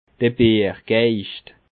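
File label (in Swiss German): "biergeischt", Bas Rhin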